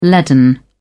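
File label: "leaden", English, British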